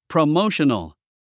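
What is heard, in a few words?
"promotional", English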